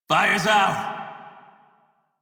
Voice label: Crew Fix Fire Male en; Dialog; Warframe; Railjack Crew; Red Veil

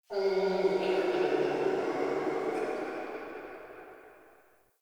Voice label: Root Cave Distant Chatter, Ambience; One Offs; Neathuns Root Cave

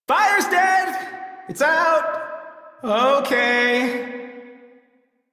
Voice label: Crew Fix Fire, Male, en; Dialog; Warframe; Railjack Crew; Perrin Sequence

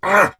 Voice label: Pain, Barks Battle; Warframe; Dax; Dax Knight

